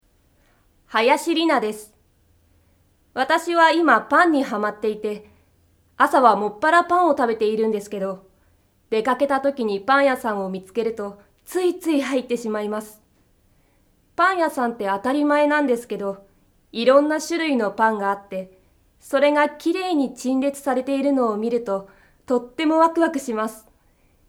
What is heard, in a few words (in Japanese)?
フリートーク